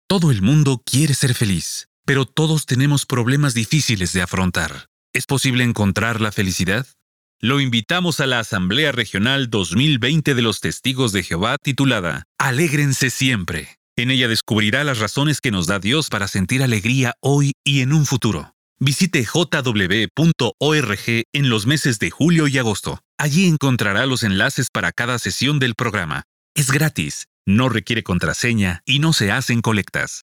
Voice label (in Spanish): Anuncio